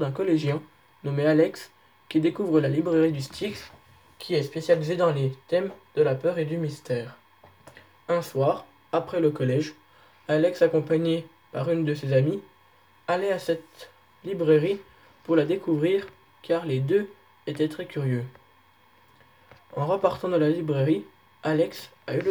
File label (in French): enregistrement oral du livre